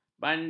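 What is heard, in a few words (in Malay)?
"banding", Malay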